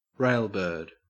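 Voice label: "railbird", Australian English; pronunciations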